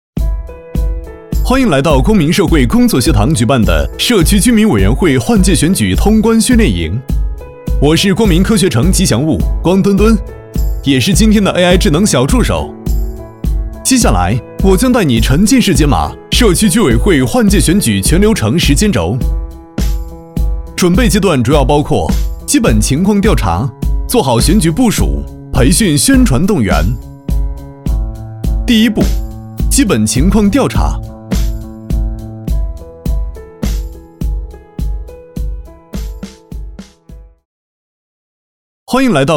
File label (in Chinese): 男 旁白 角色感; 飞碟说